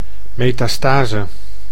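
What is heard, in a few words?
"metastase", Dutch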